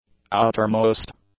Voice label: "outermost", English; adjectives